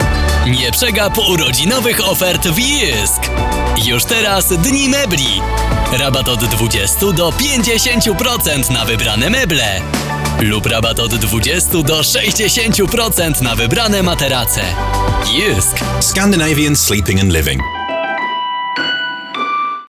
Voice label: polish promos; Talento de locução polonês